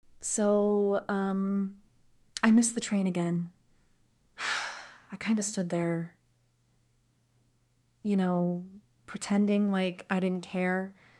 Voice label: Sad; Text-to-Speech; Emotional, melancholic demo; Orpheus-FastAPI; Tara Sad Sample